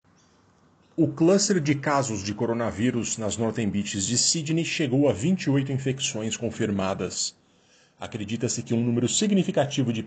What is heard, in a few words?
portuguese; SBS Portuguese; Casos de coronavírus nas Northern Beaches em Sydney chega a 28; Gladys